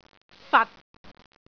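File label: "fat6", Cantonese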